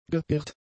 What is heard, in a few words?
"geirrt", German; adjectives